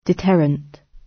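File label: "deterrent" British; Crime and punishment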